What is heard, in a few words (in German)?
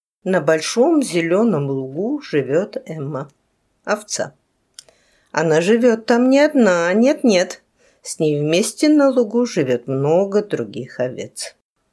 russisch